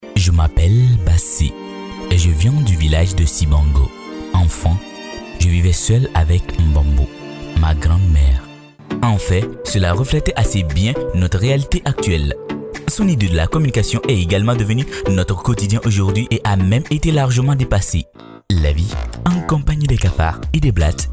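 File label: African French, male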